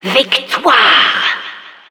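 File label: Announcer success, French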